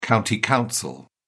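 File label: "county council", British English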